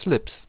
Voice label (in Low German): slips; nds; tdegames; ktuberling